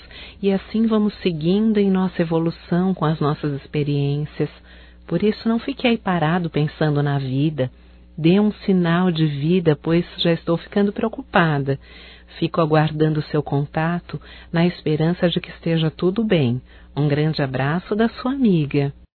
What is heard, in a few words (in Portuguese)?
SAUDADES AMIGO, Telemensagens, Só Voz, fem